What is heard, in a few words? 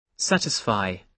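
"satisfy", British English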